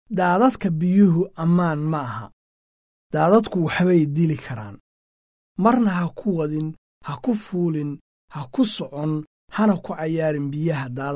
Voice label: somali; floodsafe